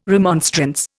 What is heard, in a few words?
"remonstrance", Indian